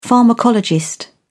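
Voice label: "pharmacologist", English, British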